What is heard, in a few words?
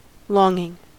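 "longing", US English; pronunciations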